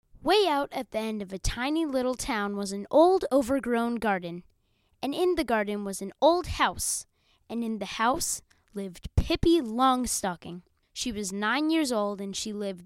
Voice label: US boy; children

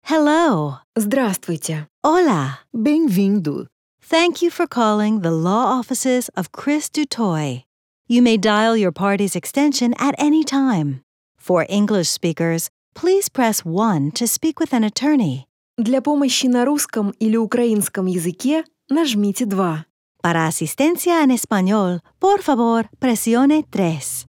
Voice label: quadrilingual ivr

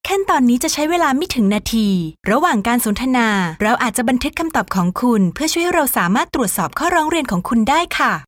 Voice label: Thai IVR

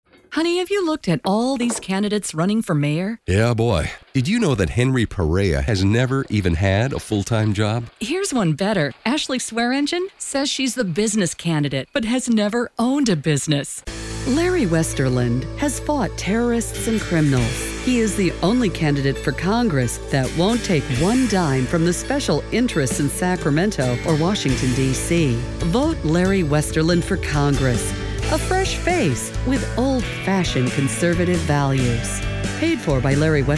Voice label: US, political

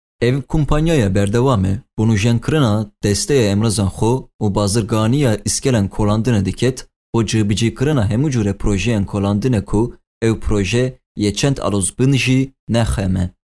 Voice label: Kurdish Sorani

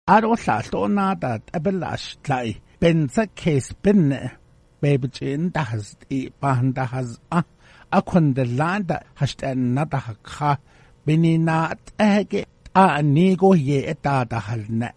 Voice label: Navajo

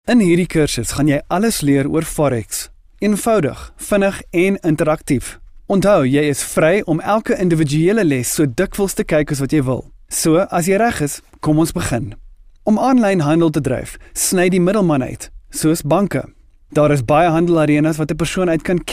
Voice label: AFRIKAANS M

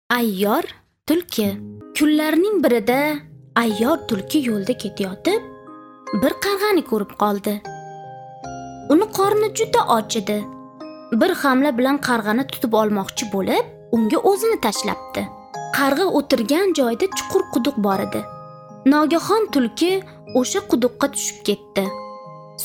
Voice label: Uzbek, Female

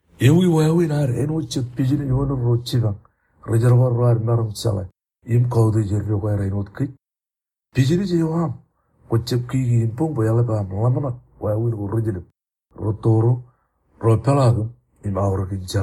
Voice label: Marshallese

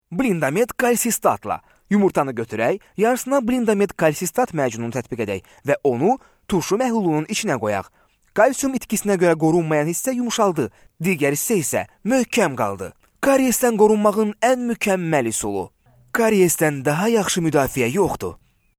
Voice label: AZERI

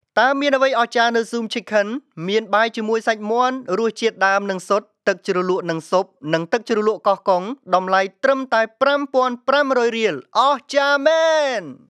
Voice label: KHMER

